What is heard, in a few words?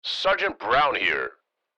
Military radio voice; Sergeant Brown here